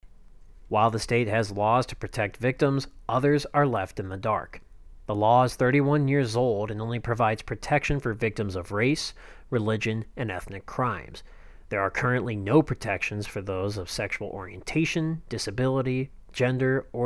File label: VO GROUP